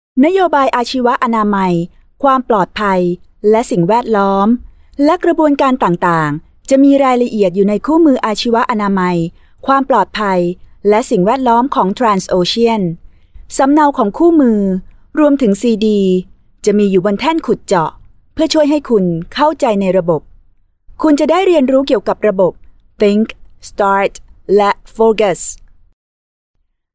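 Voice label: Thai Narration Female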